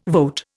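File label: "vote", Indian English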